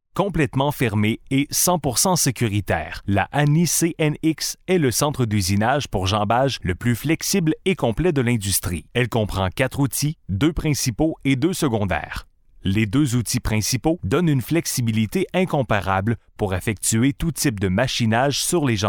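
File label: French Canada, male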